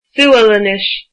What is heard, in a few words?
Swedish, irish